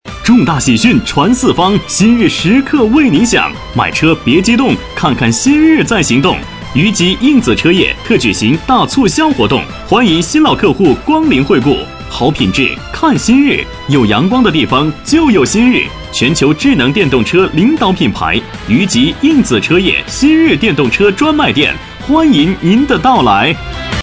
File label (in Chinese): 男声; 新日